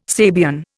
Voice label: "Sabian", Indian